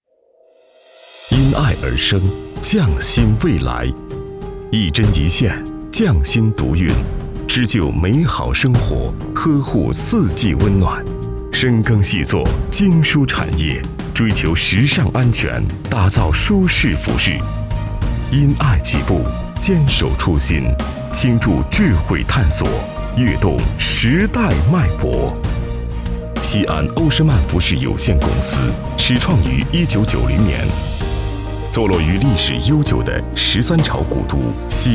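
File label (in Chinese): 宣传企业; 欧诗漫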